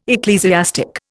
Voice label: "ecclesiastic", Indian

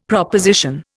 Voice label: "proposition", Indian; Dictionary

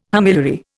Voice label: "homiliary", Indian

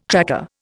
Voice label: "tracker", Indian

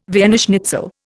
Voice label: "Vienna schnitzel", Indian

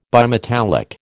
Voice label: "bimetallic", American

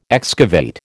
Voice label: "excavate", American

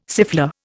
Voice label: "siffleur", Indian

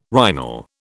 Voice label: "rhinal", British

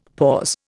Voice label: "pause", Indian; Dictionary

